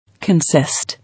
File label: "Consist", English